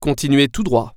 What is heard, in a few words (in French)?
Straight, french; waze